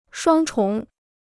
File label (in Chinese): "双重", Chinese